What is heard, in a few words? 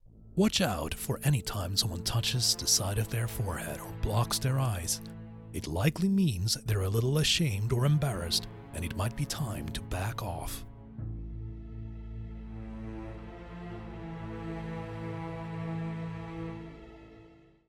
english commercial